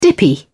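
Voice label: "dippy", British English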